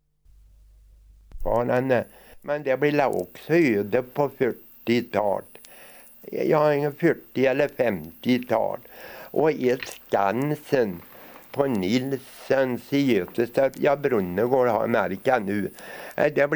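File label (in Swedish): Vesene Västergötland, dialekter; Folkminnen; Svenska sagor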